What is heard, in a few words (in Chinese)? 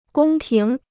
"宫廷", Chinese